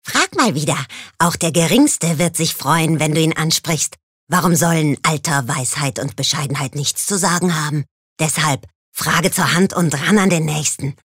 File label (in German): Charge Comic